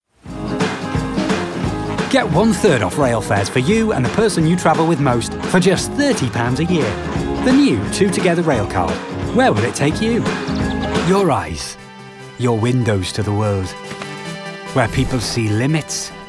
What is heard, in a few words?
Commercial